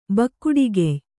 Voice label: "ಬಕ್ಕುಡಿಗೆಯ್", kannada

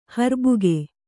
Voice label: "ಹರ್ಬುಗೆ", kannada

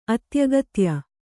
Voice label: "ಅತ್ಯಗತ್ಯ", kannada

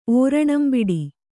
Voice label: "ಓರಣಂಬಿಡಿ", Kannada; ōraṇambiḍi; = ಓರಣಗೊಳ್.

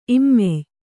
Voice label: "ಇಮ್ಮೆಯ್", Kannada

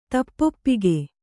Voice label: "ತಪ್ಪೊಪ್ಪಿಗೆ", kannada

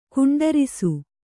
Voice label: "ಖಂಡರಿಸು", kannada

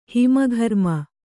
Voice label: "ಹಿಮಘರ್ಮ", kannada

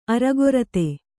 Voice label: "ಅರಗೊರತೆ", Kannada

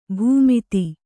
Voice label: "ಭೂಮಿತಿ", Kannada

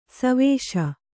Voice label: "ಸೇವಿಸು", kannada

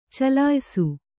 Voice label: "ಚಲಿಯಿಸು", Kannada

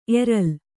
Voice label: "ಎರಲ್", Kannada; eral

